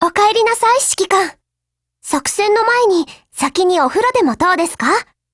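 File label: HELLO, Japanese; GF Alarm; Saiga12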